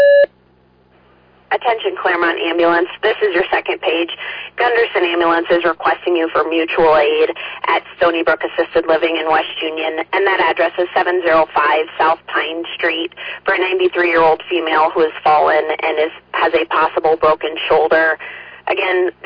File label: Fire, dispatch; Clermont